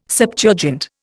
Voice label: "Septuagint", Indian